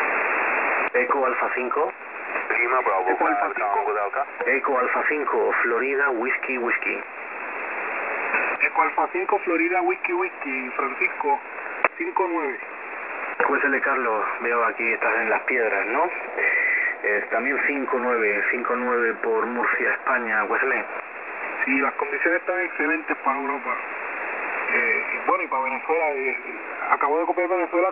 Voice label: qso 14288kHz, Radio